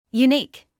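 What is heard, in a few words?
"unique", British English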